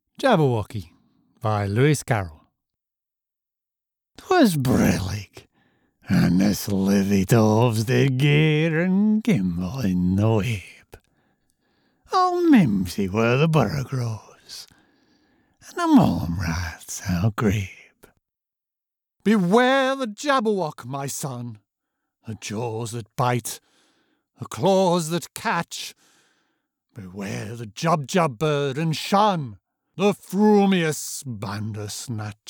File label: Articulate Believable British Narrator; Narration; Jabberwocky By Lewis Carroll